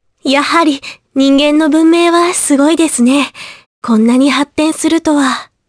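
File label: vox dia Japanese; Laias; kingsraid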